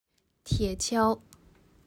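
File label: "铁锹", Chinese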